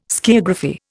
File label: "skiagraphy", Indian